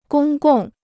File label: "公共", Chinese